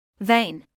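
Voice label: "vein", British English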